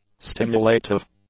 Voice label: "stimulative", English; adjectives

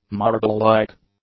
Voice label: "marble-like", English; adjectives

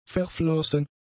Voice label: "verflossen", German; adjectives

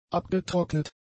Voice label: "abgetrocknet", German; adjectives

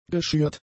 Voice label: "geschürt", German; adjectives

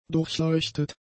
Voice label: "durchleuchtet", german; adjectives

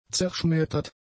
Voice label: "zerschmettert", German; adjectives

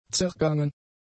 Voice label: "zergangen", German; adjectives

